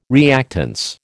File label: "reactance", American